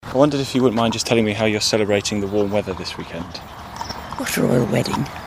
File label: confused old lady